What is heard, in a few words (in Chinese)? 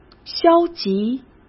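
"消极", Mandarin Chinese; chinese flashcards; hsk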